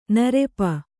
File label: "ನೆರಪು", kannada